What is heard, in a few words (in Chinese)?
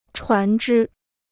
"船只", Chinese